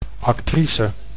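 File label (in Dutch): "actrice", Dutch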